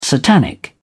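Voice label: "satanic", British English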